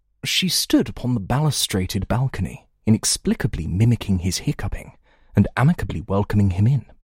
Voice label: UK Received Pronunciation Younger

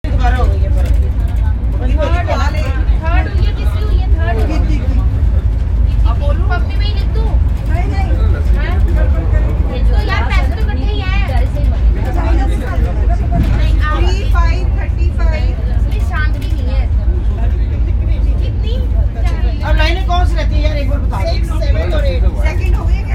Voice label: Aunties playing tambola in Amritsar

